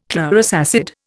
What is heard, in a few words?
"chlorous acid", Indian